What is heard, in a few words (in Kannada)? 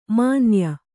"ಮಾನಾಯ", kannada